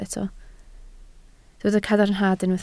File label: "cadarnhad", Welsh